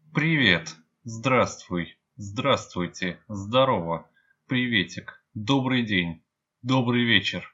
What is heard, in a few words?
greetings, Russian